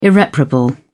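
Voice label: "irreparable", British English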